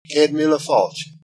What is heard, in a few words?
"welcome", Irish